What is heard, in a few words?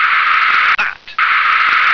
"bat", English